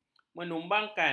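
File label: "menumbangkan", Malay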